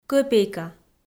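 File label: "кӧпейка", Komi-Zyrian, female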